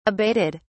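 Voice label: "abated", British English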